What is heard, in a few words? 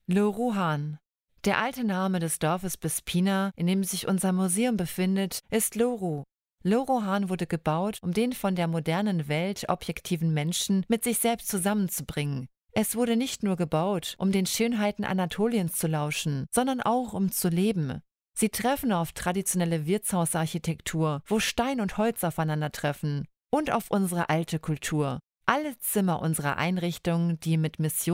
deutsch